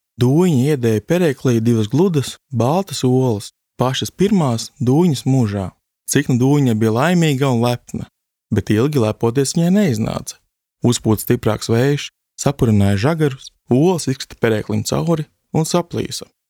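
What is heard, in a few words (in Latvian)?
Pasaka Latvian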